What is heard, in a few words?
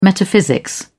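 "metaphysics", English, British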